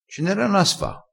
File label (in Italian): "a sfa", Crespinese